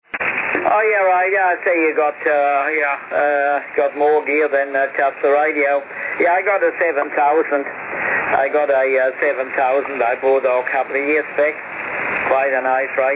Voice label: Part of an Amateur Radio transmission from Tasmania; HF